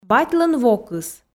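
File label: "батьлӧн вокыс", Komi, female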